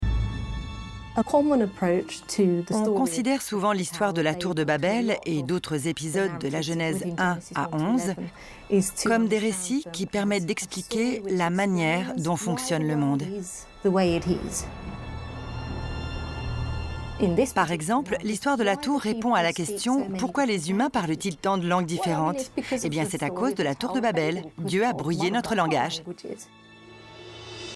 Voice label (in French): voix off bible